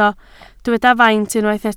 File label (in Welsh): "faint", Welsh